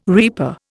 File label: "reaper", Indian